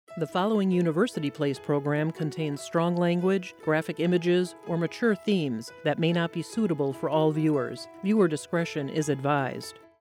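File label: announcer, female; University Place; Promotions; Rebrands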